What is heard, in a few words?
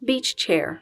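"beach chair", English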